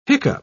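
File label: "hiccough", English, British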